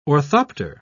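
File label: "orthopter", British English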